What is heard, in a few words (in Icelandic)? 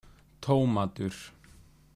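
"tomatur", Icelandic; matarhefti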